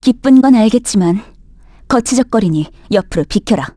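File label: Vox Victory, Korean; Valance; kings raid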